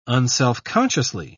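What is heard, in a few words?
"unselfconsciously", British English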